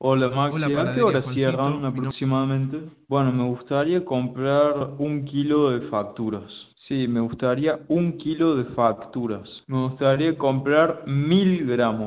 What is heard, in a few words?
phone audio